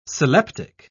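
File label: "sylleptic", British English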